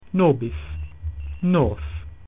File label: latin us